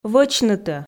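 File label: "вӧчнытӧ", Komi, female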